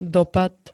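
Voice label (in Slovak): "dopad", Slovak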